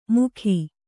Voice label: "ಮೂಕಿ", kannada

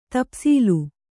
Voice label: "ತಪ್ಸೀಲು", Kannada

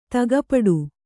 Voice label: "ದುಃಖಪಡು", kannada

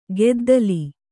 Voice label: "ಕುದ್ದಲ", Kannada

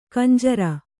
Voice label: "ಖಂಜರಿ", Kannada

